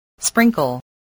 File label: "sprinkle", voice spelling